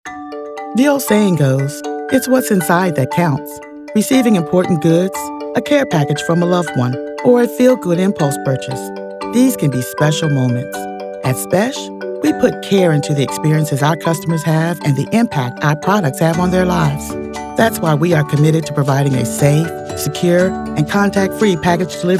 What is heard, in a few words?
Corporate